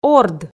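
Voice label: "орд", Komi, female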